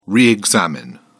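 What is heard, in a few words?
"re-examine", British English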